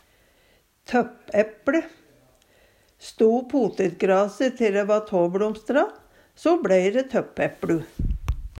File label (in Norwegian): tøppæpLe, Numedalsmål; Substantiv inkjekjønn; Fekk potetgrase stå te dæ va tåbLomstra, so bLei ræ tøppæpLu.